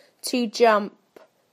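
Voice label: "to jump", British English; PictureDictionary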